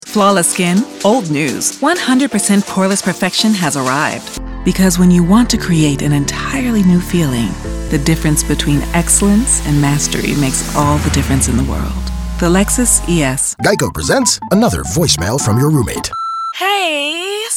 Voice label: Commercial, femalevoices